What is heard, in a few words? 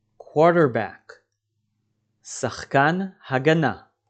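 "quarterback" English, "שחקן הגנה בפוטבול אמריקאי" Hebrew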